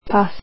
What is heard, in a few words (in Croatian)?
"dog", croatian